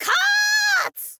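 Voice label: "kaatsu", girl, Japanese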